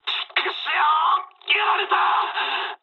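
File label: aircraft destroyed mood med, speech japanese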